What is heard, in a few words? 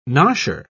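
"nosher", British English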